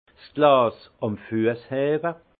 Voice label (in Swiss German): "glas", alsace; vin